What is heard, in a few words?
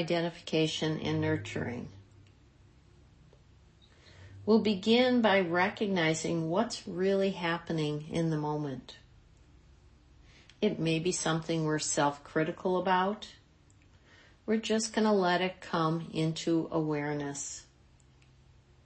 RAIN Meditation